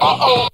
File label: "uh oh", Voice; ooh ahh